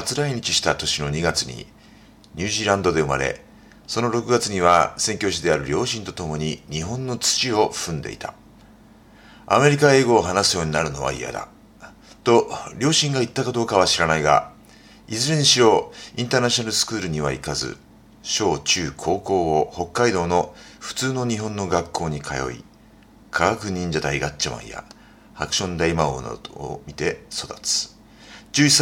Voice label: Voice sample Relaxed bio Japanese